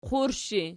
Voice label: "qurshi", Archi